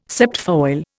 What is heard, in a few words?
"septfoil", Indian